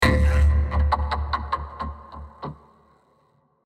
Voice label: laugh, Silicon; Voice; syndieborg